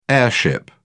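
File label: "heirship", British English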